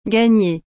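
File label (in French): "gagner", French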